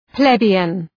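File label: "plebeian", English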